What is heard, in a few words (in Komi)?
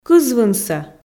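"кызвынсӧ", Komi, female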